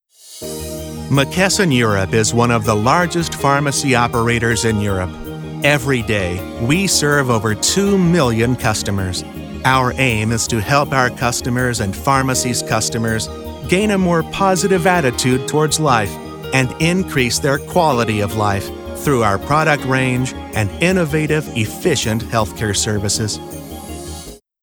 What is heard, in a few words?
Corporate Narration, male; McKesson Europe